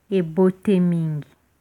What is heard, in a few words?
thanks, lingala